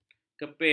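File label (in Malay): "kepir", Malay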